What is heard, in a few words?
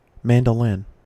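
"mandolin", US English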